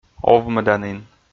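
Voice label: "овмӧданін", Komi, male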